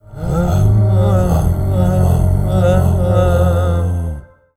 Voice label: TURBO ANGRY, VOICE; Rarefaction - Poke in The Ear With A Sharp Stick